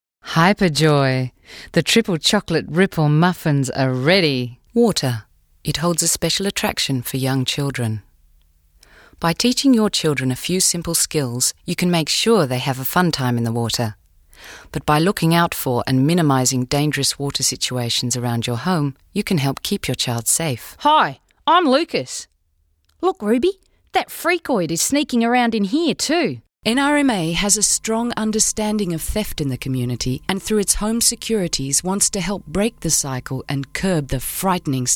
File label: v o, Voiceover